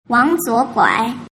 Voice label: "wangzuoguai", mandarin